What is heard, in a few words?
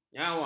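"nyawa", Malay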